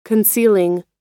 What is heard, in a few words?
concealing, us